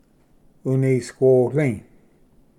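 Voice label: "unisquoli", Cherokee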